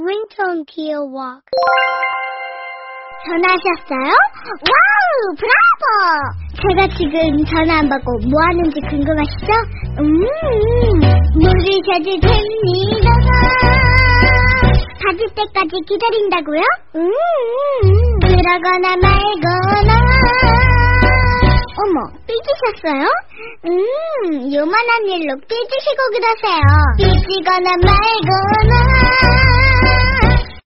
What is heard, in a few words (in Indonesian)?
nada dering kiyowo korean; nada dering Korea yang imut